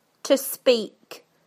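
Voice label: "to speak", British English; PictureDictionary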